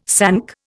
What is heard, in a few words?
"sank", Indian